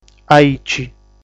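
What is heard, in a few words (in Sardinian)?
"Aici", Sardinian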